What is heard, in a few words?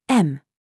DRESS TRAP, minimal pairs